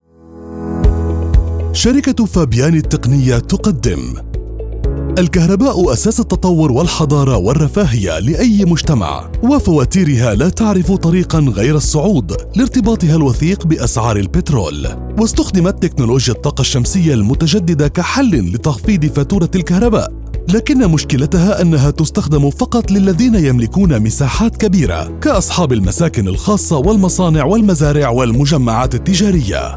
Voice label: Arabic voice over Corporate company presentation